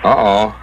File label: "uh oh", Voice